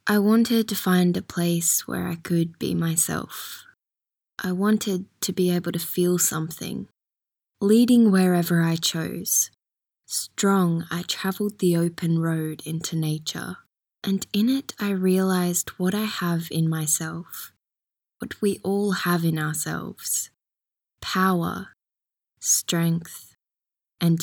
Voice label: 外籍英女 诗歌 低沉磁性

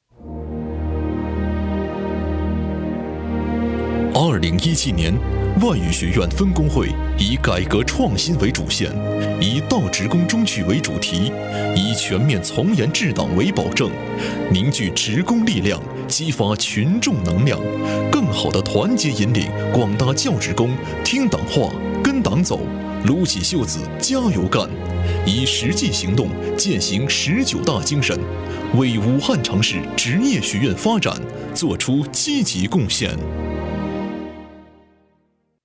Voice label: 男 专题 稳重 浑厚 磁性 有力; 十九大报告; 外语学院分工会

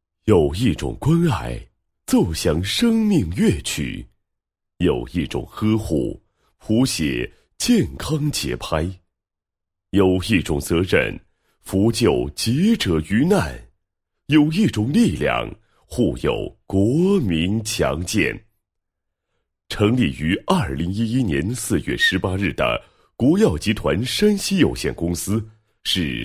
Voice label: 男-企业, 配音案例; 国药集团山西有限公司

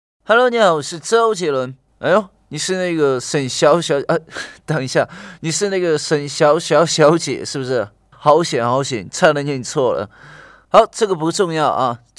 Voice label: 男 模仿; 周杰伦

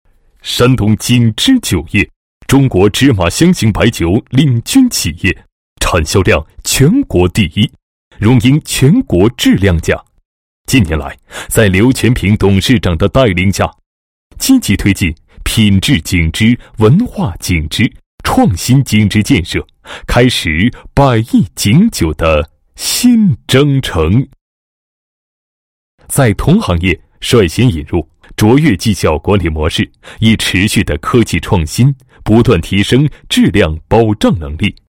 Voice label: 男 专题 激情大气 酒类企业宣传介绍, 国语男声配音

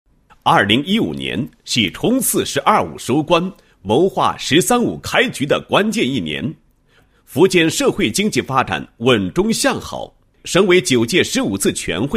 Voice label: 男-工作总结-走过2015 工作总结, 配音案例

